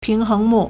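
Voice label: "ping2heng2mu4", Mandarin Chinese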